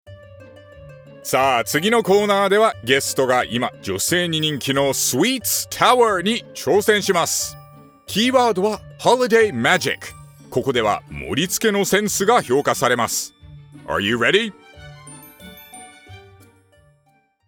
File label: foreigner; ラジオDJ(日本語)